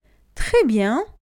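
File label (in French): "très bien", French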